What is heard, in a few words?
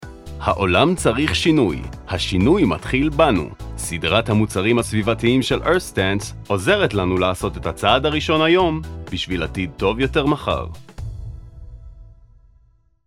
Hebrew